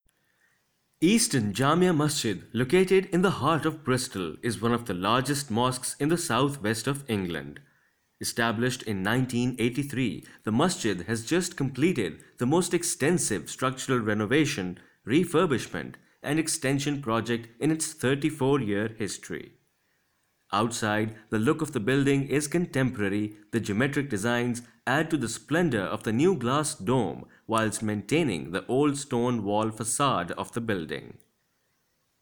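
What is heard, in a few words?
Indian English, male, narration, english in foreign accent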